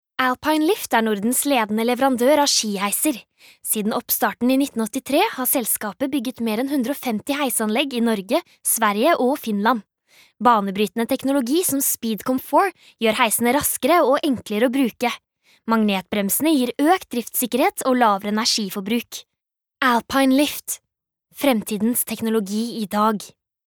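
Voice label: Norwegian corporate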